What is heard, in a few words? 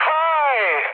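Turkish trick, mood high, speech; War Thunder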